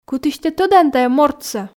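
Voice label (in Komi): кытысь тэ тӧдан тайӧ мортсӧ, Komi, female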